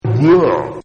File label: BLEHH, Exclamation; Sound Effects:Voice